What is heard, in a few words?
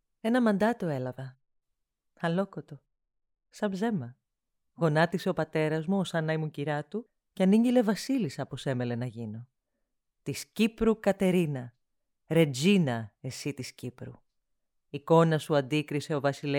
greek narration